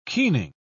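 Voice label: "keening", British English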